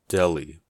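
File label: "deli", US English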